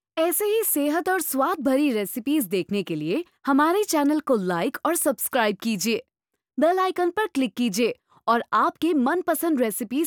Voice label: hindi